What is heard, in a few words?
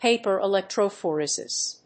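"paper electrophoresis", English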